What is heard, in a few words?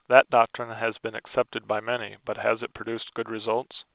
clean Speech